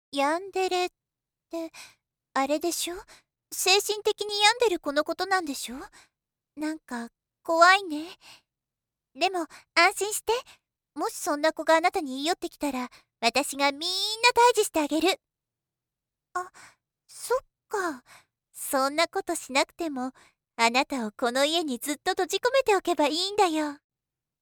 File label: female